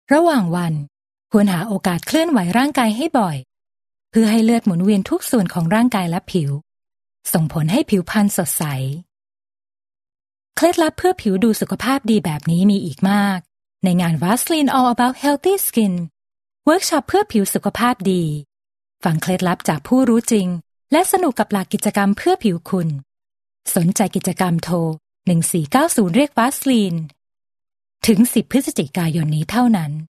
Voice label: thai